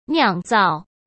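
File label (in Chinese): "酿造", Mandarin Chinese; hsk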